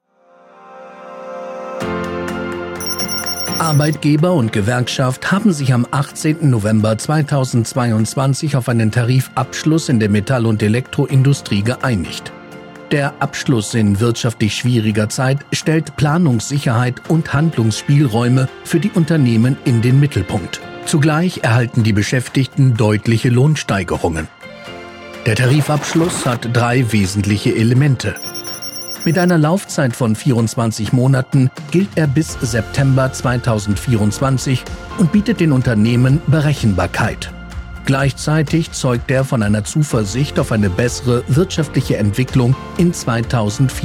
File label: German e-learning; professioneller deutscher männlicher Sprecher und Synchronsprecher